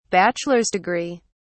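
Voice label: "bachelor's degree", British English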